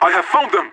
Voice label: radio taking fire; player vo leet